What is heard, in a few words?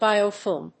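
"Biofilm", English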